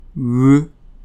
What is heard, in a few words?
[ɥ], French